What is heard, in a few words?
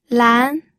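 "lan", Mandarin Chinese, second tone; pinyin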